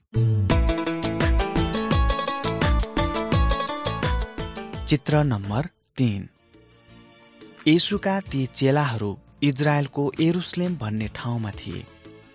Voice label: acts of the holy spirit nepali